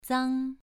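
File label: "zang2", Chinese pronunciation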